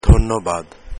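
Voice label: "thanks", Bengali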